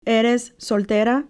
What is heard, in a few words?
Are you single female, Eres soltera